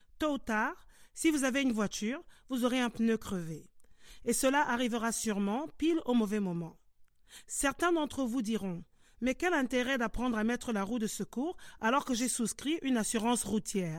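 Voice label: FRAF General, African French; GoLocalise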